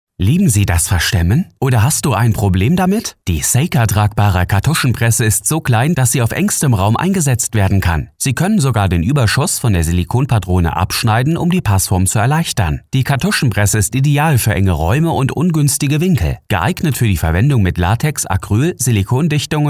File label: German male